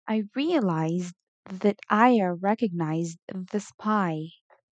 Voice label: Tongue Twister ai

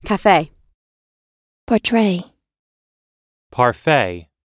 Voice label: ei Two Syllable Final

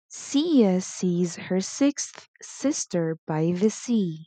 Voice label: Tongue twister s

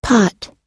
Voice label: "pot", American English; pot /pɑ́t; ポット、丸くて深い陶器・ガラス製のつぼ。

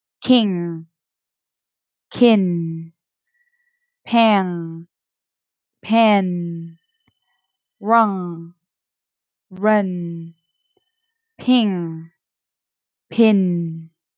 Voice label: Minimal Pairs ng n, pronunciation

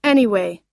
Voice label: "anyway", us; とにかく、それにもかかわらず

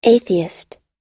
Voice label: "atheist", us; 無神論者

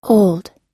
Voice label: "old", us; 年取った、老いた、老年の、老人たち、(満)…歳の、年長の、年上の、古い、古びた、使い古した

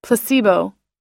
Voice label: "placebo", us; 偽薬、プラセボ、プラシーボ、気休め(の言葉)

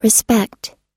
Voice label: "respect" us; 尊敬する、自重する、自尊心をもつ

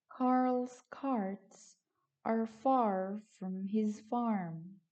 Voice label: Tongue Twister aɚ

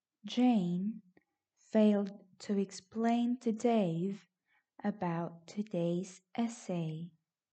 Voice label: Tongue Twister ei

